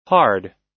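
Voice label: "hard", US English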